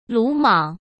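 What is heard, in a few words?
"鲁莽", Mandarin Chinese; chinese-flashcards; hsk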